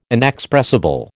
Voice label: "inexpressible", American